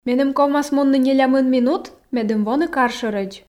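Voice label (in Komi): меным ковмас мунны нелямын минут медым воны кар шӧрӧдз, Komi, female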